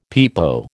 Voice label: "pepo", American

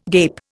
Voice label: "gape", Indian

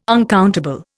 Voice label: "uncountable", Indian

